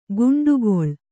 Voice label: "ಗಂಡುಗೊಳ್ಳು", Kannada

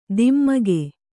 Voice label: "ಧಿಮಿಕು", Kannada

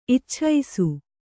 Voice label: "ಇಚ್ಛಿಸು", Kannada

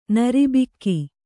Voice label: "ನೀರುಬೊಕ್ಕೆ", Kannada

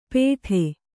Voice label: "ಪೇಠೆ", Kannada

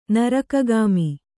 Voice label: "ನರಕಗಾಮಿ", kannada

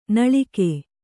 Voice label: "ನಾಲಿಗೆ", Kannada

